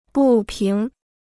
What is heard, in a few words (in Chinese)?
"不平", Chinese